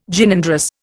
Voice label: "Gynandrous", Indian

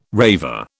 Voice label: "raver", British

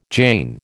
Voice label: "Jain", American

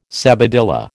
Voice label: "sabadilla", American

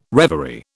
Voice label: "reverie", British

